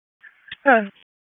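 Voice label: Police District Dispatch; wake p25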